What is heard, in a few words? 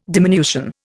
"diminution", Indian